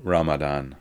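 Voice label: "Ramadan", pronunciations; Fourth Way; Beelzebub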